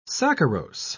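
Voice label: "saccharose", English, British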